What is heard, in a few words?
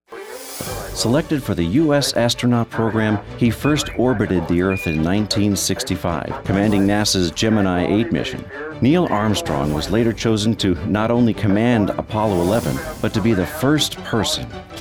narration